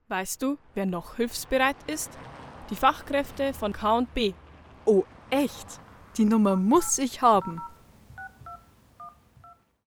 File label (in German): Werbung Reminder; K+B Expert